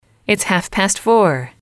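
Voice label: it's half past four, female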